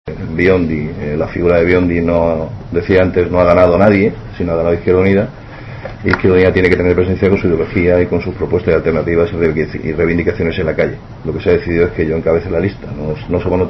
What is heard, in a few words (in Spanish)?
noticias